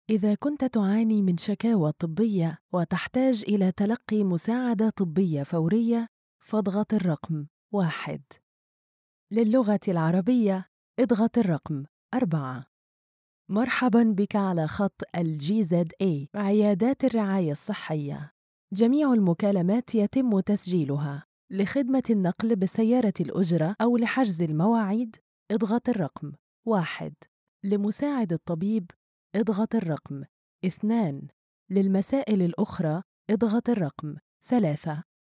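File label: arabic interactive voice response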